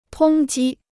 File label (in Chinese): "通缉", Chinese